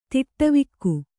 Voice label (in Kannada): "ತಡವಿಕ್ಕು", Kannada